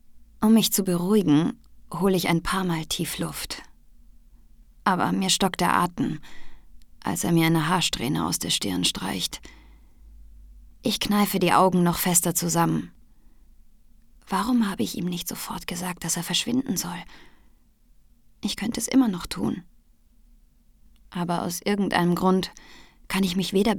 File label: Romantic short; SYNCHRON